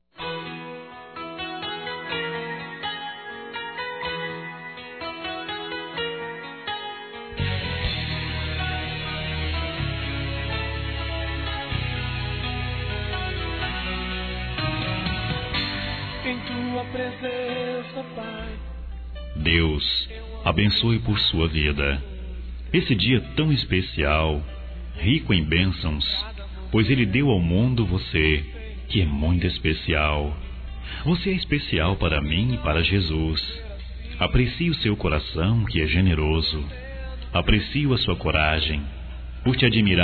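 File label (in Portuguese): NEUTRO Masculino, Aniversários Evangelico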